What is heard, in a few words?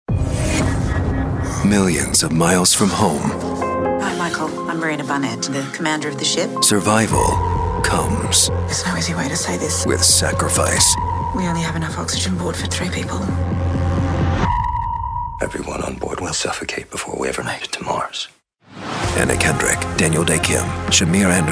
Trailer